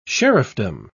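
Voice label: "sheriffdom", British English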